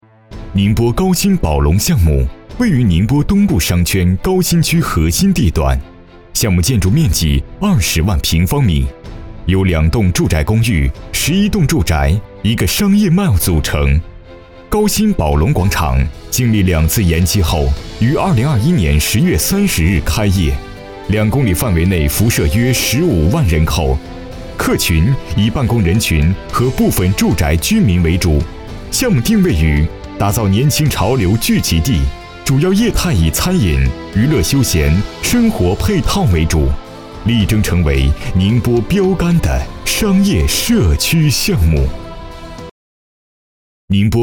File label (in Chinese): 多媒体 项目规划 工程汇报, 男; 宁波高新宝龙项目